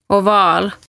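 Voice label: "Ovaal", Estonian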